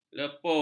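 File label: "lepur", Malay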